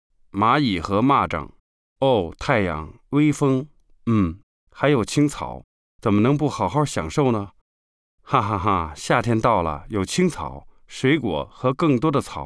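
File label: chinese male narration; voice demos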